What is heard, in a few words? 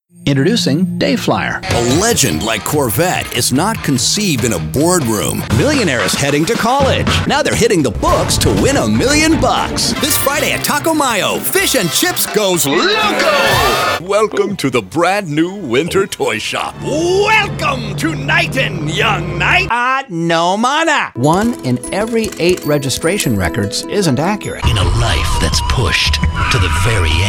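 male, American English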